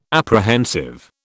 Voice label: "apprehensive", British

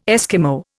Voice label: "Eskimo", Indian

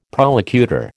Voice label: "prolocutor", American

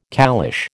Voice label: "caliche", American

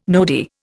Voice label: "nodi", Indian

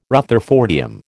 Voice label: "rutherfordium", American

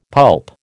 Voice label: "pulp", American

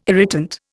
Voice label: "irritant", Indian

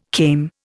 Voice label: "came", Indian

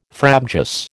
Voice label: "frabjous", American

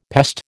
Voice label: "pest", American

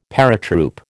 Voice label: "paratroop", American

